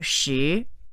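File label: "shi2", Chinese, female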